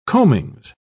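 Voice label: "combings", British English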